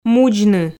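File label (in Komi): "мудзны", Komi, female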